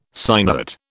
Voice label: "sinuate", British